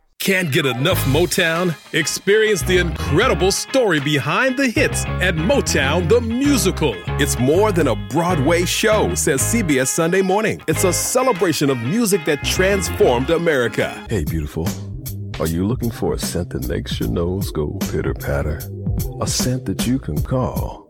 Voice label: Commercial